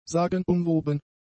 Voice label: "sagenumwoben", german; adjectives

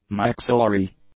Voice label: "maxillary", English; adjectives

